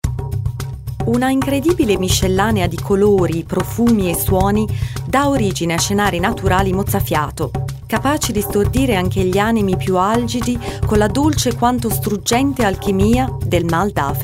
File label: Italian